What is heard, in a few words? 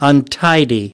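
"untidy" US English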